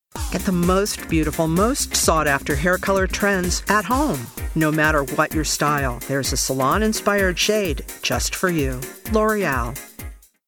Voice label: Commercial; L'Oreal